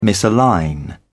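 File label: "misalign", British English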